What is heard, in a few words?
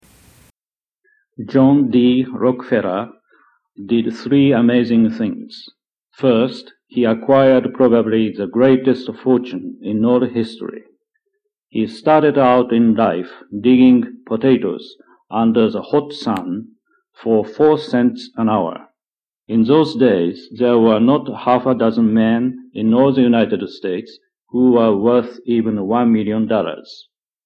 Japanese